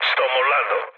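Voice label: Italian bailout mood low, speech; War Thunder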